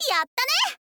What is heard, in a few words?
"yattane", girl